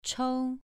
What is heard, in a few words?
"chou2", pronunciation